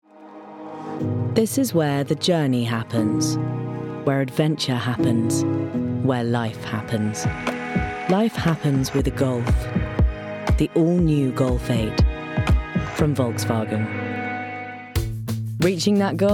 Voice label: Commercial